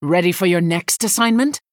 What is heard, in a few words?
Female Human Bark